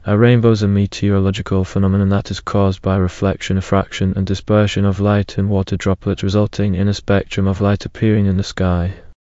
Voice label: speaker, en GB; piper voices; vctk